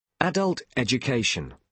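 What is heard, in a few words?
"adult education", British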